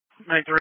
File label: EMS Dispatch